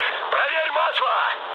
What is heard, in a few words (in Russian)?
damaged oil, mood high, speech ru; War Thunder